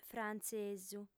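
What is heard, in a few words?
"frantzesu", female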